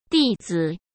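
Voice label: "弟子", Mandarin Chinese; hsk; chinese-flashcards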